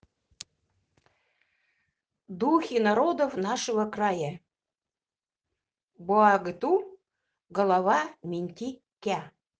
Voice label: Край, удэгейский язык

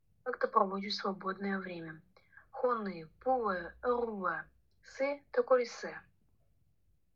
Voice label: время ульчский

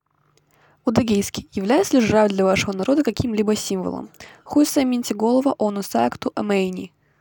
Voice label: удэгейский предложение

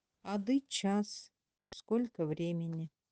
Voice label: время эвенкийский

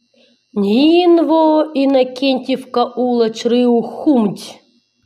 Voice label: Предложение на нивхском

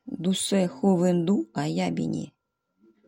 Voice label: ОРОЧСКИЙ Тигру в тайге хорошо

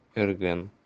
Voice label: нанайский

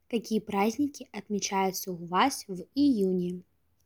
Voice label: июнь, русский

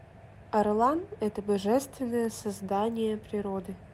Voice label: Предложение русский

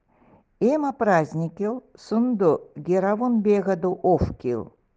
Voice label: Приложение январь эвенкийский

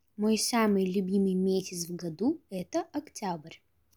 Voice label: октябрь, русский

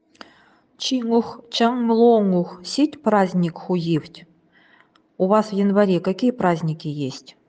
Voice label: январь, нивхский

